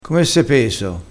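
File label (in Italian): "peso", dialettale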